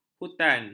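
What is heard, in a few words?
"hutan", Malay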